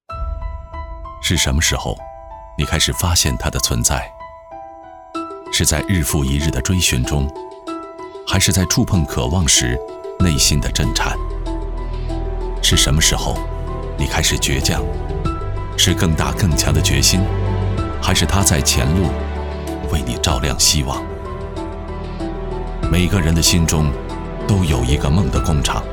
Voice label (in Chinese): 男 汽车广告 沉稳磁性